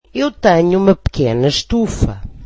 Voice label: portuguese I have a small green house